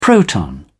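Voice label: "proton", British English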